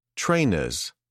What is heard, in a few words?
"trainers", British English